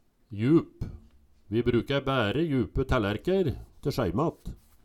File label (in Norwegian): jup, Numedalsmål